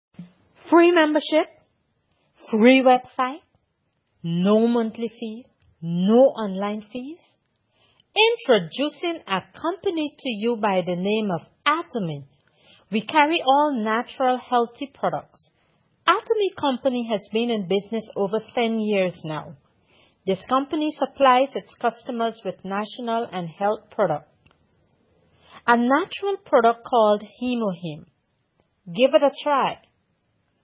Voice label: COMMERCIAL, Advertisements